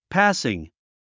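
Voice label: "passing", English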